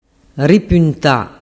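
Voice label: "ripunta", Sicilian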